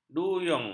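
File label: "duyung", Malay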